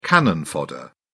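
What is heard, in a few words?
"cannon fodder", British English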